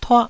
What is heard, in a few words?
"tuah1", taiwanese